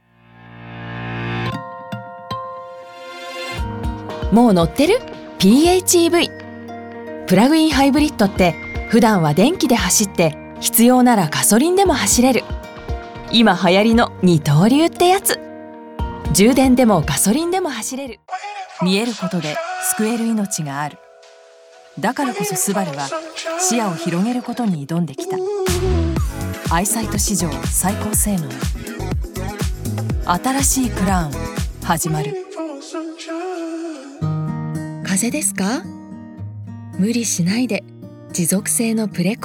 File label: Commercial, Japanese; demo reel